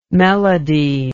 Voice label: "melodie", English